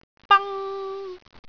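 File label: "bang1", Cantonese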